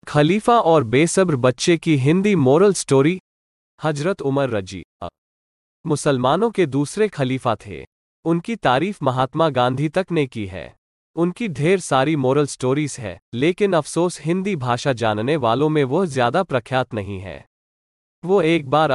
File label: hindi story